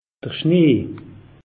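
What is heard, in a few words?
"schnee", Alsatian; meteo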